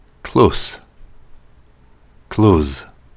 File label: "close", pronunciation; intermediate english pronunciation test